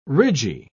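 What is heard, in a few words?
"ridgy", British English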